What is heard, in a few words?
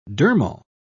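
"dermal", British English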